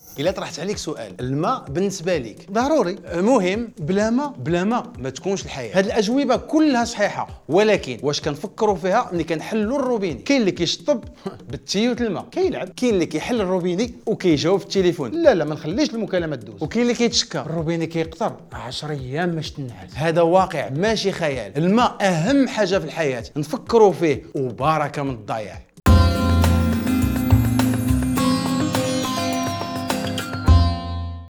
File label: SPOT radio; ONEE EAU; compagne Sensibilisation 2014